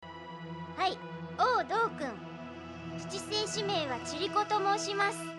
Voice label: japanese; fushigiyuugi; chiriko